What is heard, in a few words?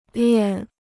"练", Chinese